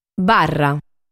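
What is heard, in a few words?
"Barra", Sardinian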